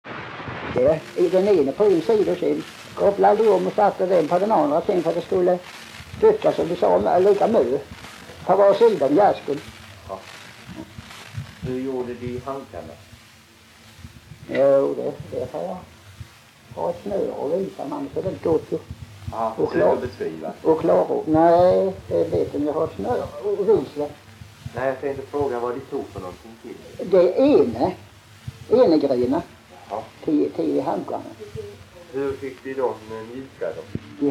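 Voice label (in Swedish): Tving, Swedish dialect; svenska sagor; Folkminnen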